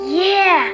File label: "yeah" kid